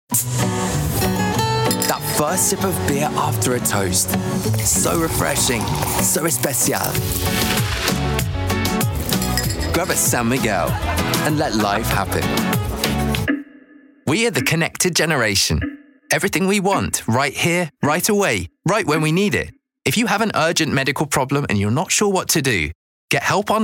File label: commercials